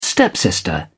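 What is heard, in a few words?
"stepsister", British English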